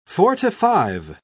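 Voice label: "forty-five", English, British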